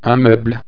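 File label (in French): "meuble", French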